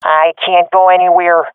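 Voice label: pinned, swat female; vo; Counter Strike Model Pack; CS:GO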